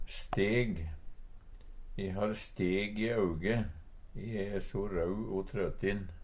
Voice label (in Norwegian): steg, Numedalsmål